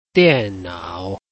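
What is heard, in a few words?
"dian nao", Chinese, tones 4 and 3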